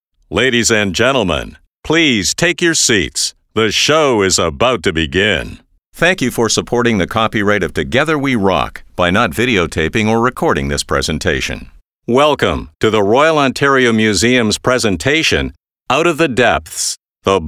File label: Corporate And Narration